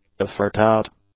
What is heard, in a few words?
"aforethought", English; adjectives